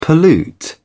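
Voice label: "pollute", British English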